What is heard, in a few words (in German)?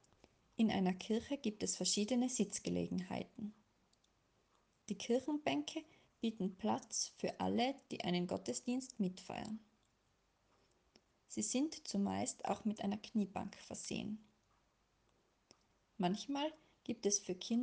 Audioguide; Sitzgelegenheiten; Kinderliturgie